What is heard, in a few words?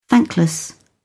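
"thankless", English, British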